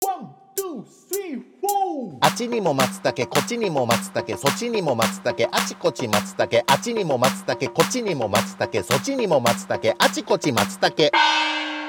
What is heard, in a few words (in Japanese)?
早口言葉リズム（中級編）